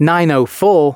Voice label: announcements, male